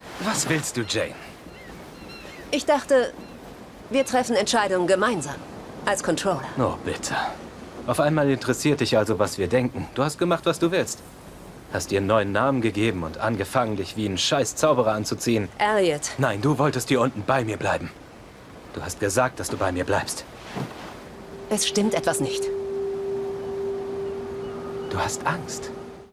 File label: german tv shows